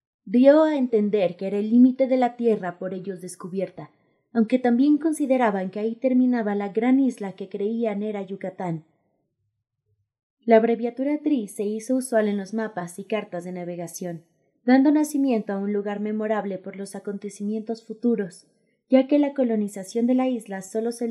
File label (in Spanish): locutora mexico mexican voiceover; locutora mexicana; Locutores de México